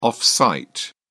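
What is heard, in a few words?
"off-site", British English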